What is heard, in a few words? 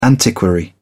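"antiquary", British English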